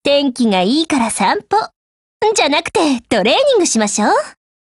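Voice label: HELLO, Japanese; GF Alarm; EVO3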